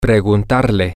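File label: to ask him her you, spanish, male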